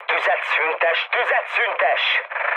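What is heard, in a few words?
player friendly fire, mood med, Hungarian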